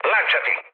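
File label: Italian bailout mood low, speech; War Thunder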